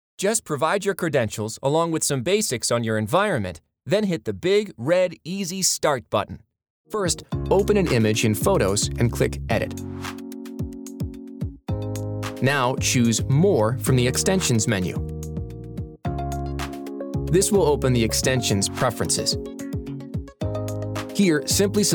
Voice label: Elearning, Tutorials, Computer Based Training

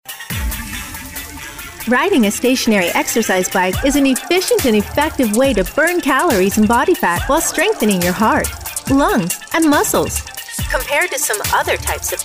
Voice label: narration demo

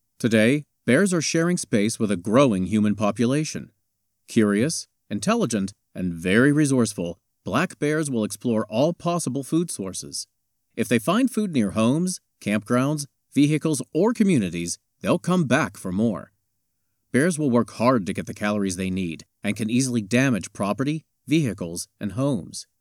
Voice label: Educational; Black Bear Encounters

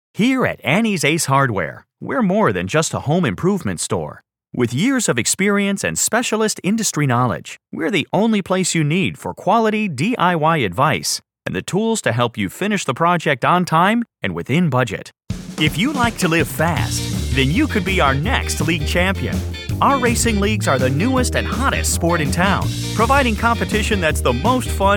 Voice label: Telephony

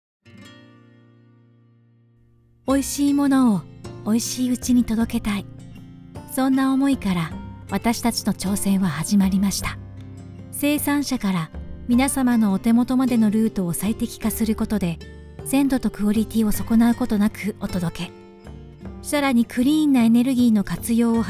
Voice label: corporate

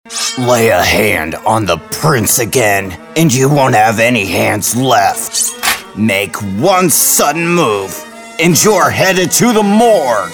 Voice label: character demo

